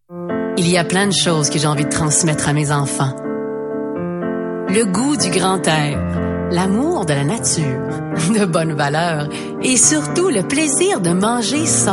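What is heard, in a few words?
female, Canadian French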